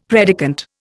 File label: "predicant", Indian; Dictionary